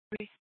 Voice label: Superstition Dispatch, Mesa PD